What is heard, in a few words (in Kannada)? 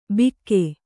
"ಬಿಕ್ಕೆ", Kannada